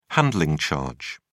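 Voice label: "handling charge", British English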